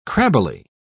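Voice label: "crabbily", British English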